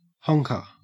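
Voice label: "honker", Australian English; pronunciations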